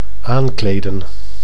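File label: "aankleden", Dutch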